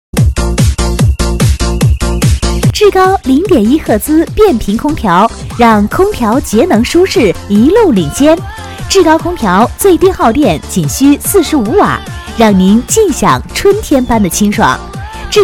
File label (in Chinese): 广告 促销 空调 激情; 志高空调